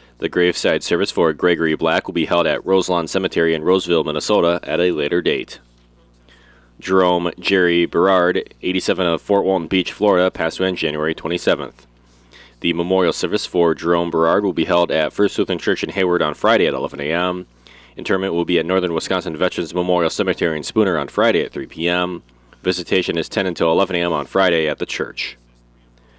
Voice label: Wednesday Funeral Announcements